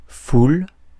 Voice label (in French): "foule", Mots francais